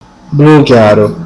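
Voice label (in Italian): "blu chiaro", Sicilian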